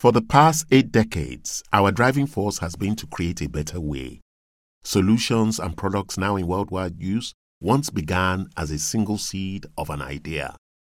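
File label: African English corporate explainer accent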